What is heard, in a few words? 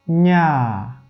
'gna, 吴语; 变体声母